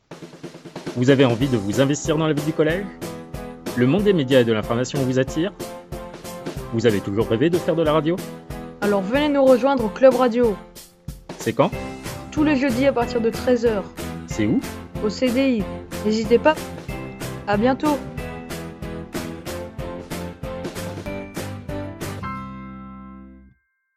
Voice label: annonce webradio

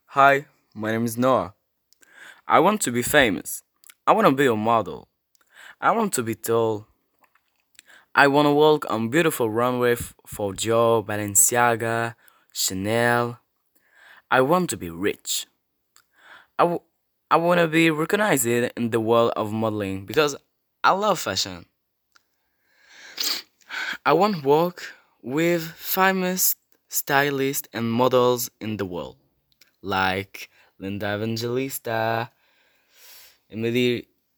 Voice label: anglais

